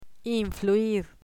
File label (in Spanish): "influir", Spanish